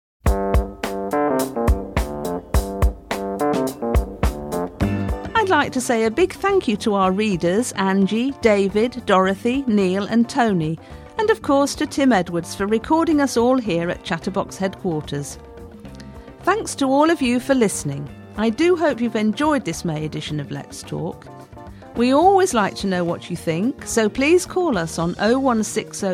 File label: Closing Announcement